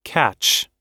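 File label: "catch", American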